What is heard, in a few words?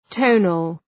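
"tonal", English; {‘təʋnəl}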